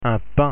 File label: pain, pronunciation, French